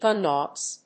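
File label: "gun dogs", English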